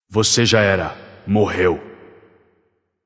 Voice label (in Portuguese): notify slain you, vox caster portuguese